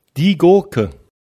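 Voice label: "Gurke", German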